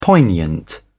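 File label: "poignant", British English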